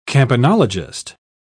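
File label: "campanologist", British English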